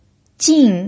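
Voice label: "jin" fourth tone, pinyin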